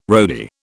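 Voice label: "roadie", British English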